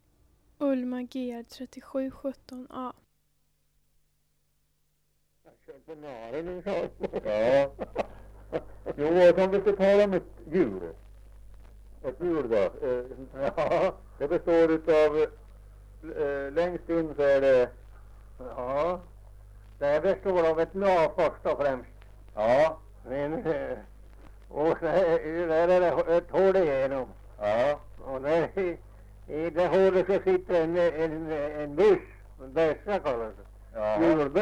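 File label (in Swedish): Hille Gästrikland, Svenska sagor, dialekter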